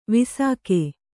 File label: "ವಿಸಾಕೆ", Kannada